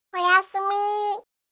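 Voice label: "oyasumi", Japanese; aisatsu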